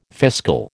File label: "fiscal", American